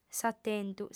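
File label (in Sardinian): "s'atentu", female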